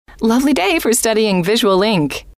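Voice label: lovely day for studying, female; trainer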